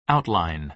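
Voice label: "outline", American English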